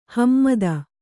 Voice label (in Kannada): "ಹಿಮ್ಮತು", Kannada